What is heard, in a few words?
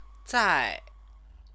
"zai", Chinese